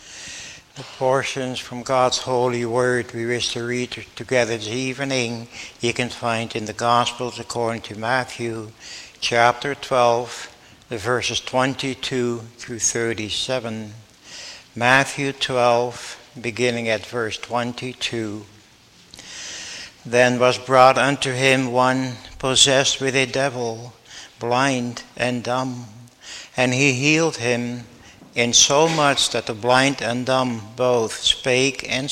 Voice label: Bible Reading